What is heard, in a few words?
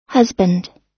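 "husband", US English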